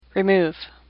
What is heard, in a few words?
"remove", English; AWL